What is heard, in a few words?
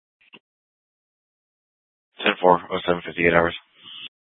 Dispatch; Scottsdale